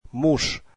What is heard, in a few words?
russian husband